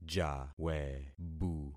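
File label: "jawebu", concatenated syllables; Affixing experiment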